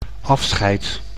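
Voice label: "afscheid", Dutch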